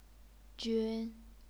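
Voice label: "jun", Chinese, first tone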